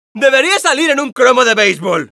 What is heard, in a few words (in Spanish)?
Scout special completed, es